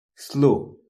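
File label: "slow", English; properties of objects new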